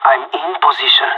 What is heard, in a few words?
in position, pirate; Counter Strike Model Pack; CS:GO